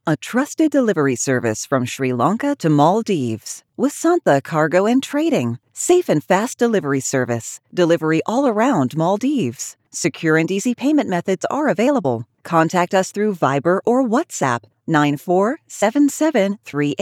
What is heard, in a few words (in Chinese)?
轻快活力